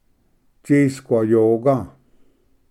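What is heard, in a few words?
"tsisquayoga", Cherokee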